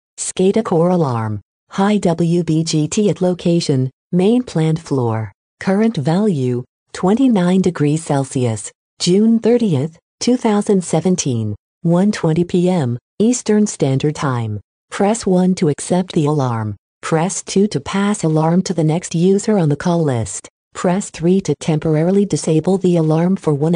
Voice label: alarm callout high wbgt notification